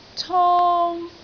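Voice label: "cong1", Cantonese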